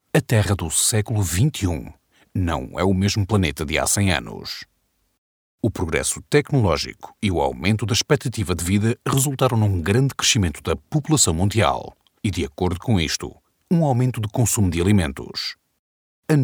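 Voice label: Portuguese documentary, mann portugisisk portugal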